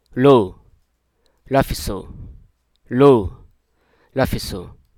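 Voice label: Hruso Aka; avail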